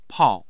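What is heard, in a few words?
"pao4", Mandarin Chinese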